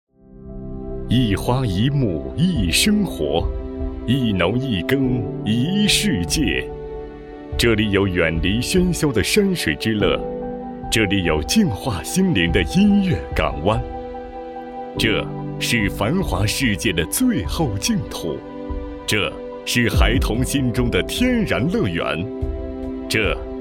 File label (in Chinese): 旅游活动宣传; 秘境